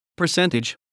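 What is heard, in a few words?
"percentage", English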